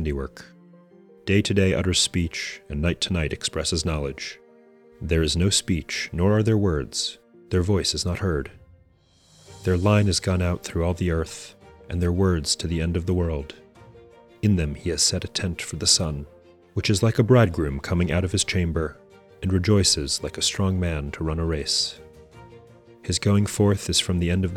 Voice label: poetry and prayer psalm english